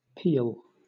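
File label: "peal" English, British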